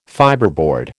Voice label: "fibreboard", American